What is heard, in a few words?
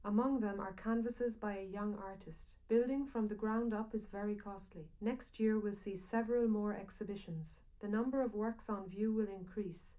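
female, live recording, 250 ms, 5 cm; SiSEC11; underdetermined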